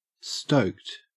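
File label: "stoked", Australian English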